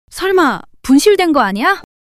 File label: surprise, monologue